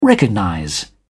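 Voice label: "recognize", British English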